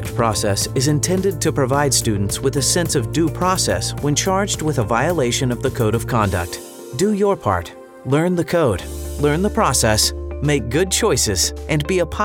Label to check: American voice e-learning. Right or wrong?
Right